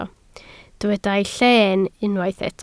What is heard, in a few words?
"lleen", Welsh